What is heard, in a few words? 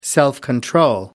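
"self control", British English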